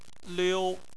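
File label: "liu", Chinese, first tone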